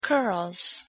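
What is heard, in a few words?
"curls", English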